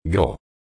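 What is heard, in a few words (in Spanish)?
"gro", Spanish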